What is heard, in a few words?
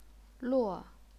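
"luo", fourth tone, chinese pinyin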